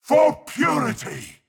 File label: Mid Fight Taunts; Voll; NPC; Voll Combat